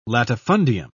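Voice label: "latifundium", British English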